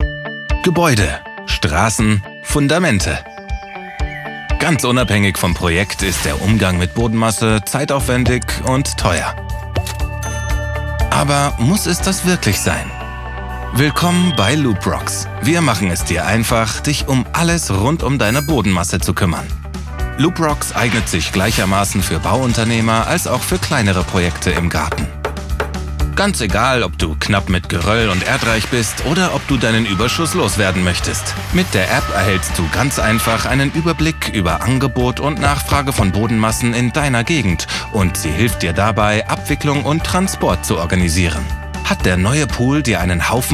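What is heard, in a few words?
German Explainer; Looprocks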